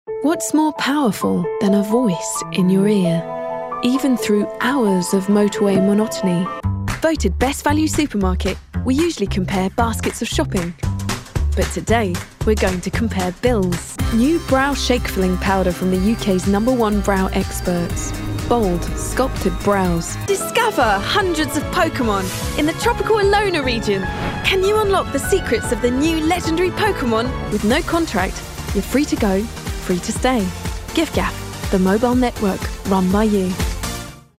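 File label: English, British, female, commercial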